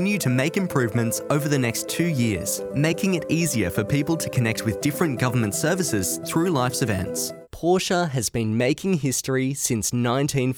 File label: english australian corporate videos